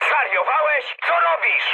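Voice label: Polish player killed ally mood high; speech; War Thunder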